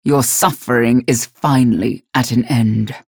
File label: vo match end victory; galena; unholy paladin; frag